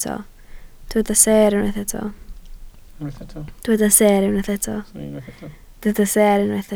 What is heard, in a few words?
"seer", Welsh